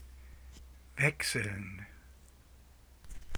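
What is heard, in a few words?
"wechseln", German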